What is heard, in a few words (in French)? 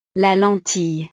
"la lentille", frances; verduras